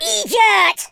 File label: "stupid", Scotsman; speech